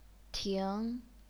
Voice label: "ting2", chinese pinyin

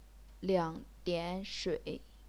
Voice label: "liang dian shui", Chinese

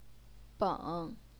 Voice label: "beng3", Chinese pinyin

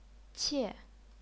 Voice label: "qie4", chinese pinyin

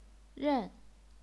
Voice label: "ren4", chinese pinyin

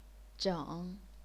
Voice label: "zheng3", chinese pinyin

